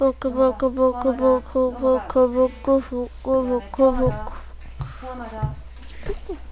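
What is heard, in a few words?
"book", spoken word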